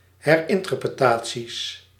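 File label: "herinterpretaties", Dutch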